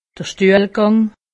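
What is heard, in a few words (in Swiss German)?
"stuehlgang", Alsatian; medecine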